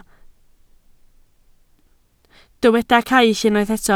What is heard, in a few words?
"caill", Welsh